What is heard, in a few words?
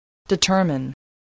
"determine", english spelling